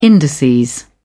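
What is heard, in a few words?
"indices", British English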